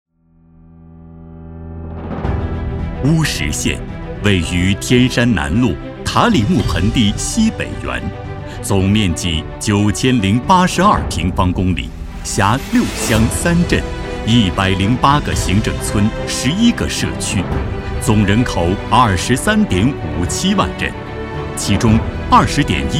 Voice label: 男 专题 大气 雄浑 力度; 乌什县

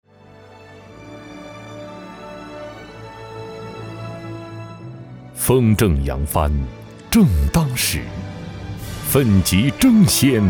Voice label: 男 颁奖; 最美退役军人

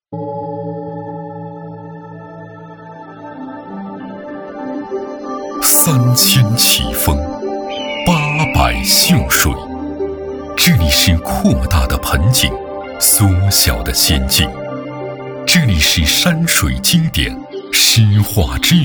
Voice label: 男 宣传 政府 片 大气高端; 风景河长制; 水美张家界

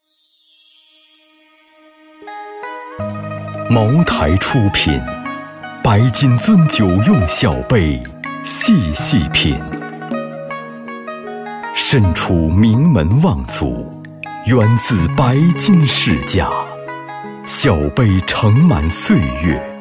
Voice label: 男 广告; 茅台

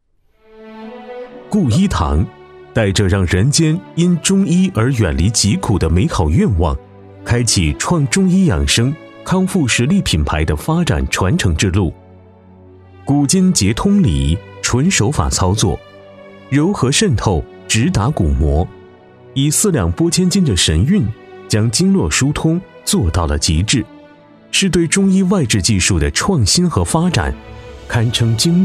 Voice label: 男 专题; 固医堂

